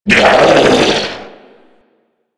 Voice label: Corpus Scream; Enemies; Abilities; Warframe; Amalgam